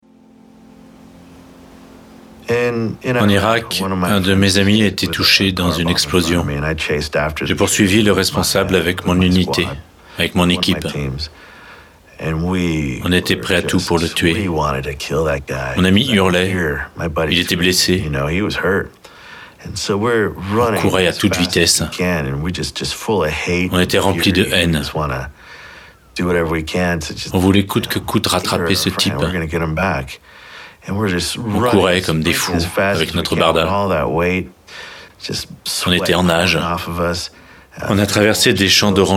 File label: Voice Over; Humans